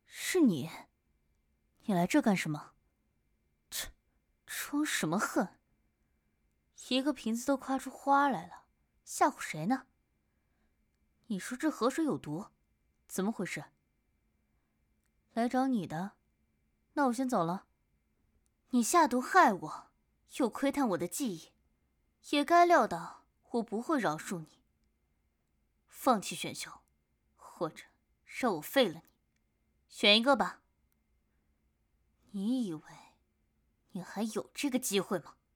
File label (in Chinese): 角色类御姐音